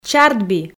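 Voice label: "чардби", Komi, female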